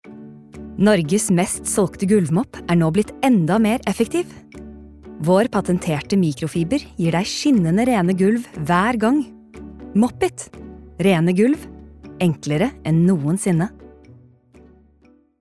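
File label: Norwegian advert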